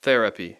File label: "therapy", English